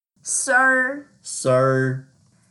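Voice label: "sir1", Mandarin Chinese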